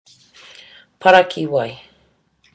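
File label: "parakiwai", Māori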